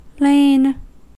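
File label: "lain", American English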